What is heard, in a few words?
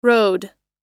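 "road", American English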